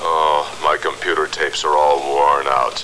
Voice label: Tapes All Worn Out, robot; robot b9